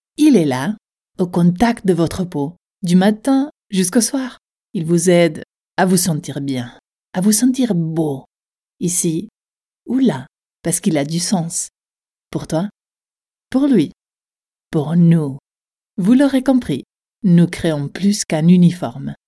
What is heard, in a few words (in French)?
Pub Marque de vêtements pro design